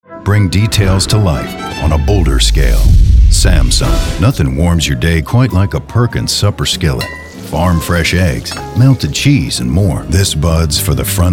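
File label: commercial, voice actor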